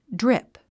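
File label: "drip", English; 発音 dríp ドリップ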